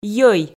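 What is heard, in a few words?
"йӧй", Komi, female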